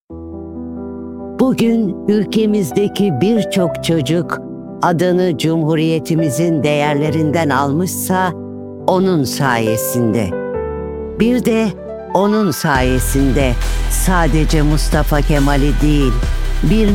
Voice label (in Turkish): duygusal motive, seslendirme